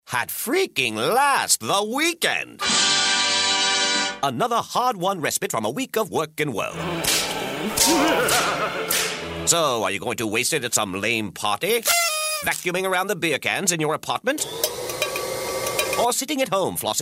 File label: humorous